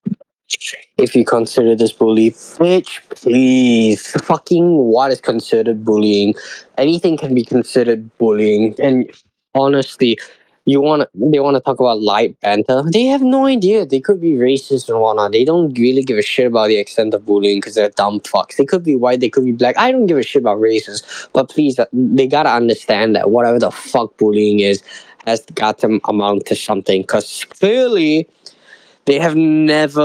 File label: voice message